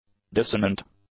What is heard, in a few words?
"dissonant", English; adjectives